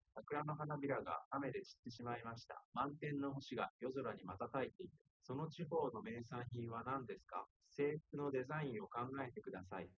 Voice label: male4 liverec 250ms 1m sim; SiSEC08; SiSEC underdetermined